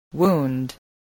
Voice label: "wound", voice, english